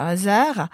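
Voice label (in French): "serra", Corsican, Moita Verde (nord); Corse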